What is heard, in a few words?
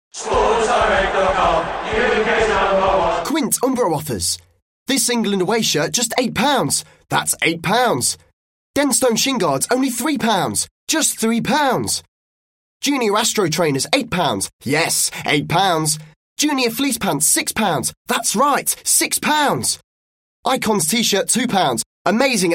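British English, commercial; GoLocalise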